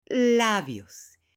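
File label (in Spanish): "labios", Spanish, female; CUERPO; AppLenguaje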